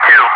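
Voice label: "2" calm, English; Wingman; DCS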